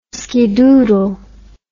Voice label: "skidoodle", words

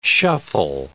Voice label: "shuffle", English

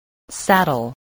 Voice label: "saddle", English spelling

